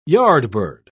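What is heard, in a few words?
"yardbird", British English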